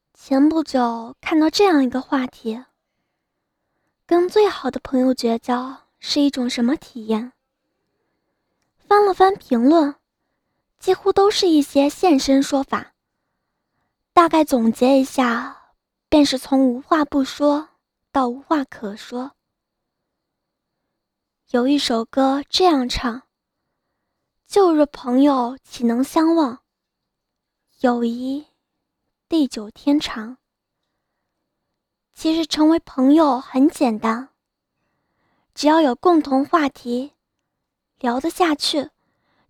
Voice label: 书单故事 女播; 奶茶公众号凉巷工作室

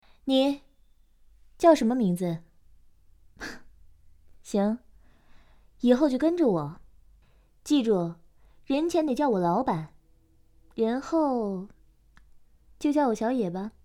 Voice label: 御姐类型

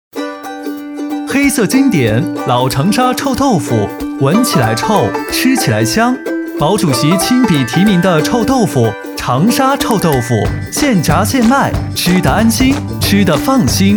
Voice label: 促销

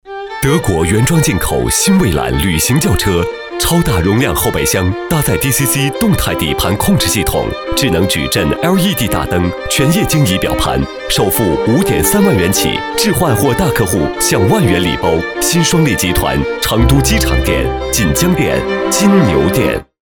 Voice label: 广告大众

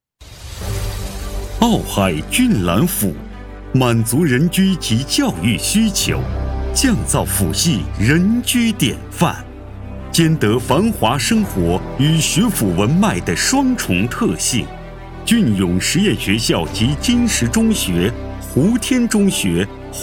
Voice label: 房地产广告

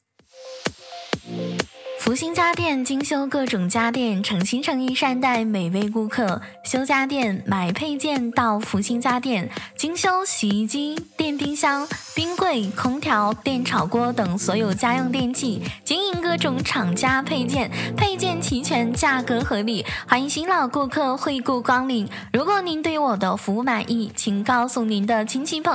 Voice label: 广告宣传配音

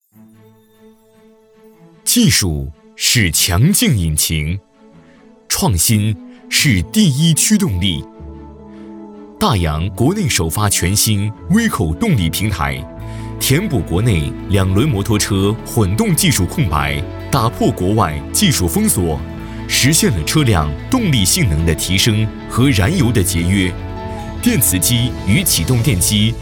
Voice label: 宣传片科技时尚; 太阳

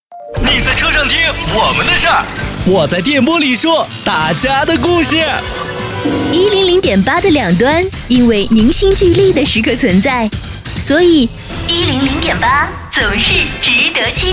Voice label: 台宣

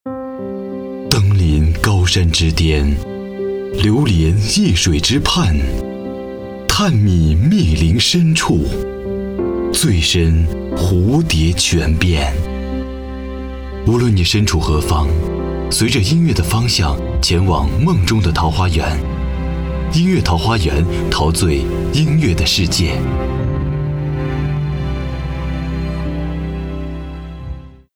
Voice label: 电台包装

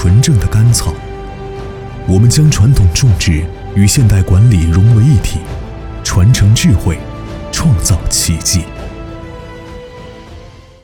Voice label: 宣传 自然

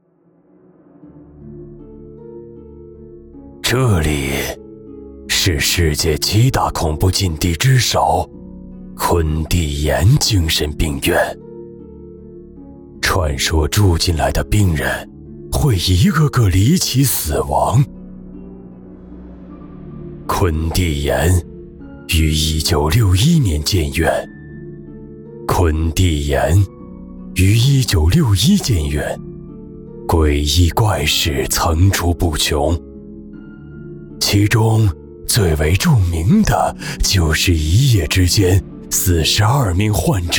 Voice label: 剧本杀旁白老年音; 昆地岩

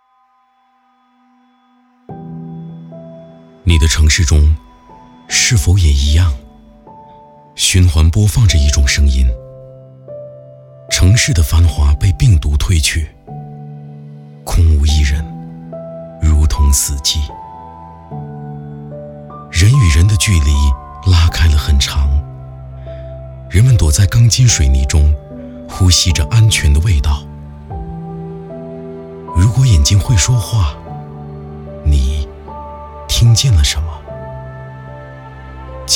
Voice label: 商业旁白; 病毒打败不了人们城市会继续向前

